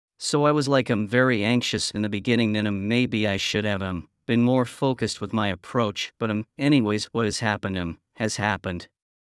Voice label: English Fillers Audio; OpenAI Whisper Example